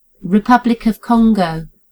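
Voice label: "republic of congo", British English; gcompris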